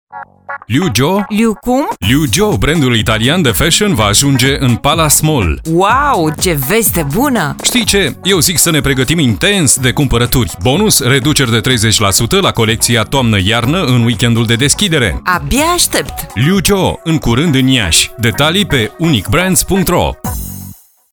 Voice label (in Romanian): spot; liujo